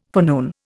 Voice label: "forenoon", Indian